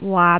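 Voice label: "waat6", Cantonese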